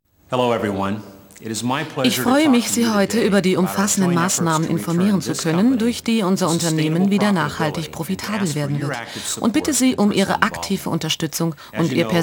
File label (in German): Sprache Voice Over; Opel